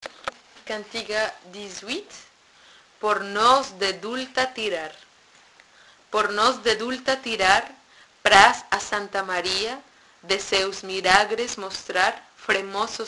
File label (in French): texte galicien diction; Cantiga 18